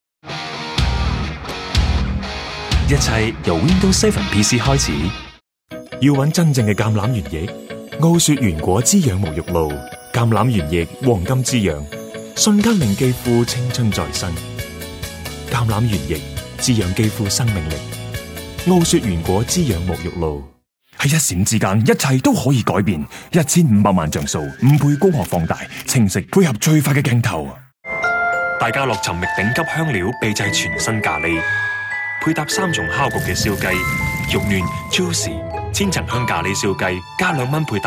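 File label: Canto Tvc, Cantonese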